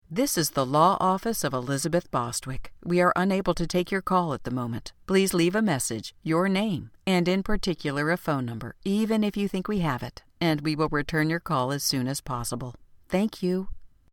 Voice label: Phone